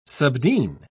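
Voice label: "subdean", British English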